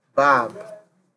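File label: "barb", Nigerian Pidgin, male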